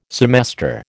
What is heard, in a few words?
"semester", American